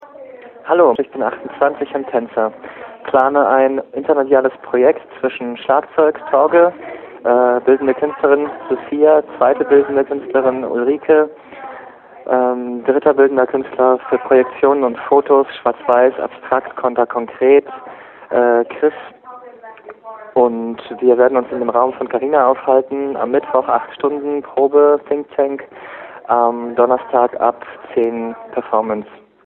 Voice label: Voicemail